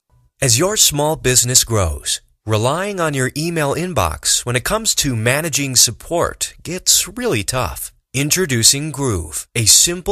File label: USA